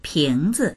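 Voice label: "ping2zi", Mandarin Chinese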